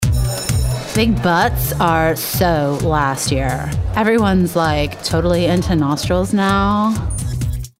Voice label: ANIMATION Valley Girl